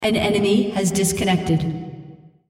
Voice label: female, On Quit Enemy Team